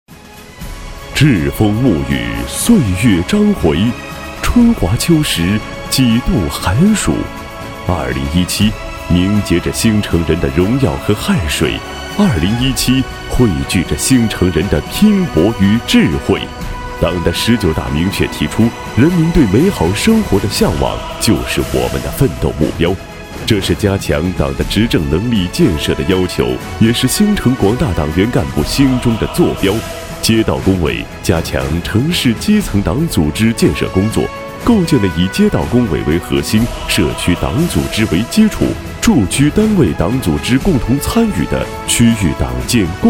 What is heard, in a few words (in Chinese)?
男 政府宣传